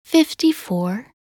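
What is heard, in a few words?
"54", English; minute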